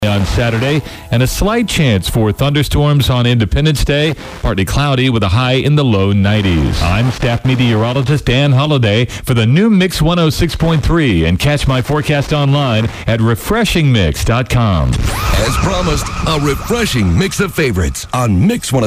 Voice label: lcl ads, FM DX; ADULT CONT; Es (1078 miles); KOLL 106.3 LONOKE AR 50